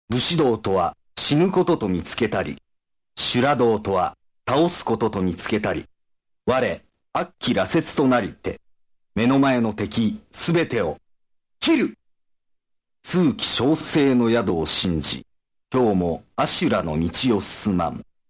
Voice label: narration voice; samurai spirits